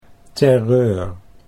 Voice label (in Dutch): "terreur", Dutch